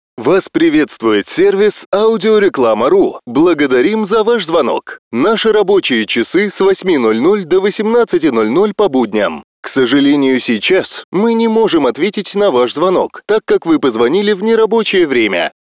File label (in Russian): демо IVR